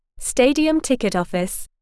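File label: "stadium ticket office", English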